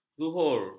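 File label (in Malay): "zuhur", Malay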